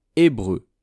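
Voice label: "hebreu", French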